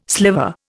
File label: "sliver", Indian